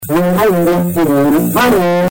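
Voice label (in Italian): "come", Italian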